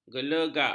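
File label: "gelegak", Malay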